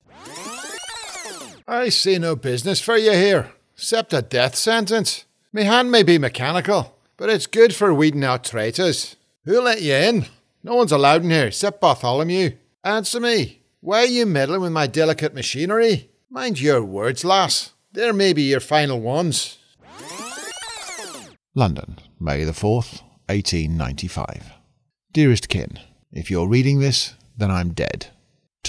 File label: Game Characters